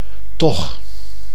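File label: "toch", Dutch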